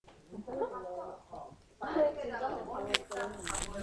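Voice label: "jab", spoken word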